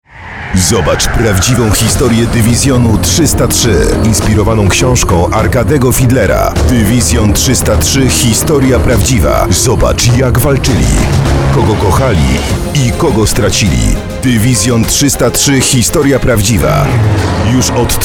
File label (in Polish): radio spot; Dywizjon 303